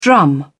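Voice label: "drum", British English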